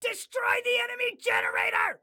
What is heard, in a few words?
Attack Generator, Excited Guy